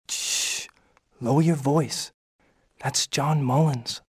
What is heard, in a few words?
lower voice; Soldier Of Fortune II Double Helix; XBOX